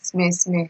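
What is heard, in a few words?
"smesme", Nigerian Pidgin, female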